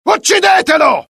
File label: alert, dialogue IT; mohaa; attack